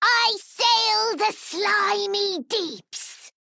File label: Female Goblin Play